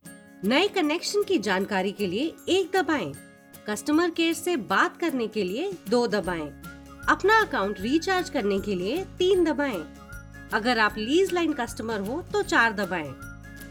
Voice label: HINDI IVR